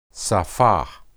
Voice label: "saphah", Hebrew